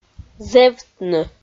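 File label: "зэвтны", Komi, female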